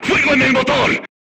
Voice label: voice message fire in tank, crew tanks spanish

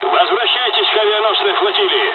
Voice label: jpn evac, dialogs russian; War Thunder

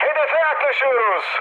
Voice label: near waypoint, mood high, speech tur; War Thunder

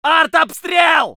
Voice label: voice sov infantry

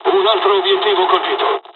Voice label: japanese first wave, dialogs italian; War Thunder

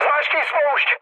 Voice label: attack position, speech cze, mood low

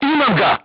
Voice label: voice message direct hit, crew tanks serbian

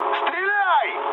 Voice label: attack position, mood med, speech ru; War Thunder

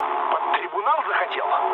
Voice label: player killed ally, mood low, speech ru

